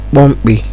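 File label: "kpomkpi", Nigerian Pidgin, male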